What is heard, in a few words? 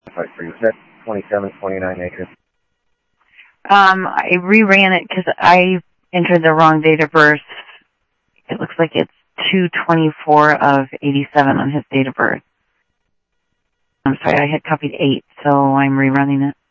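Sheriff Lake Patrol, scanner audio trunk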